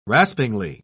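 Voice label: "raspingly", British English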